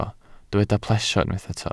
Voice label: "plesio", welsh